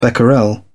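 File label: "becquerel", British English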